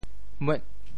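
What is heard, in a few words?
"muek8", Teochew; 末; mò; 潮州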